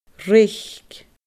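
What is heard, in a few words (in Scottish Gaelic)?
"reic", Scottish Gaelic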